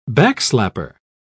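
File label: "backslapper", British English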